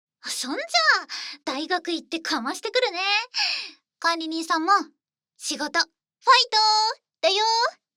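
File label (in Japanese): "いってきます", Japanese; ASMR; 特典システムボイス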